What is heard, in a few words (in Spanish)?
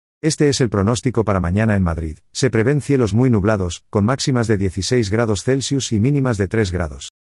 Audio Alexa Masculino